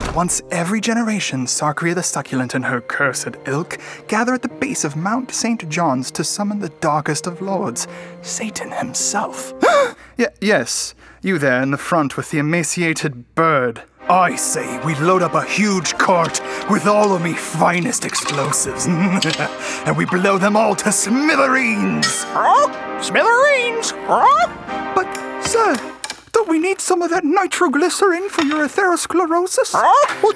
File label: CHARACTER VO; REEL